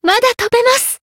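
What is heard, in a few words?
Voice Japanese; healed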